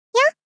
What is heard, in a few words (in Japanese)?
やっ！ 少女×高音域